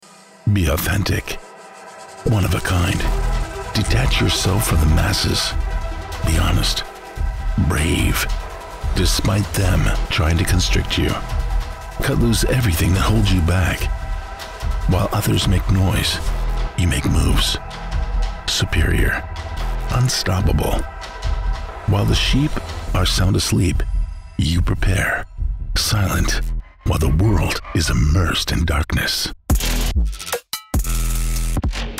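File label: clothes commercial English USA Male Hard Sell; Television Spots; Tv Clothing Ad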